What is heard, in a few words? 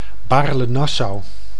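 "Baarle-Nassau", Dutch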